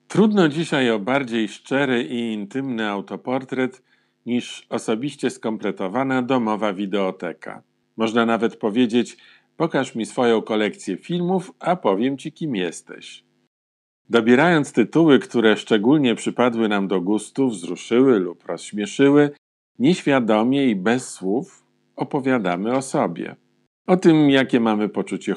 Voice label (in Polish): lektor, 50+ lat, głos; lektor, krytyk filmowy; głos rozpoznawalny z TV i radia; Tomasz Raczek; Reklama, narracja